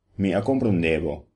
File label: "understand", Papiamento